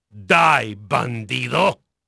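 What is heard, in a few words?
Spanish male, voice; Pirates Of The Caribbean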